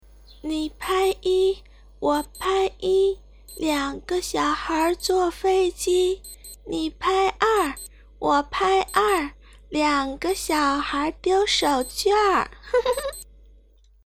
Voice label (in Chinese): 小孩